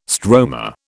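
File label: "stroma", British English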